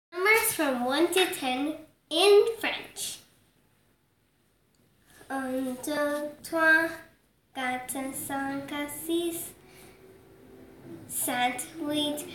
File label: Speaking Numbers from 1 to 10 in French